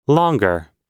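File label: "longer", US English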